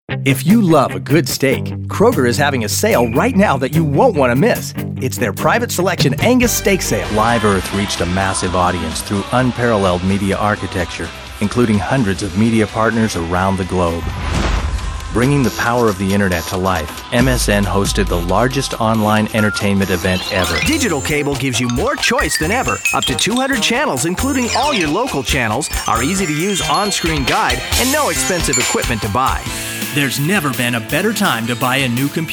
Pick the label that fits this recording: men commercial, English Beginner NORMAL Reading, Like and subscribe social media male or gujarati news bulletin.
men commercial